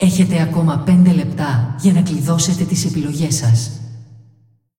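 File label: announcer female, pt br; Clash; tournaments lockin 5min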